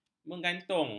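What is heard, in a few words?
"menggantung", Malay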